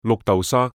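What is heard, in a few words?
"綠豆沙" Cantonese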